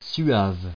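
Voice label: "suave", French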